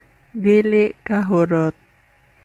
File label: Cebuano